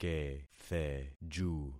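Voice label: "ketheju", concatenated syllables; Affixing experiment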